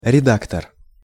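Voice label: "redaktor", Russian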